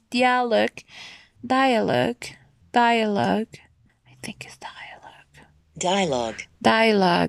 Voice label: dialog